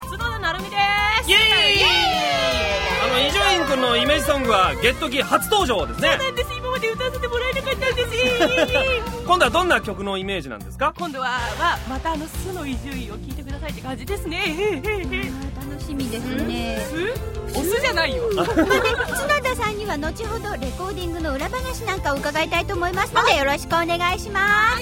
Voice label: homeroom call; Tokimeki